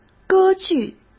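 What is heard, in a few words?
"歌剧", Mandarin Chinese; hsk; chinese-flashcards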